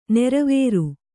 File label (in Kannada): "ನೀರುವರಿ", Kannada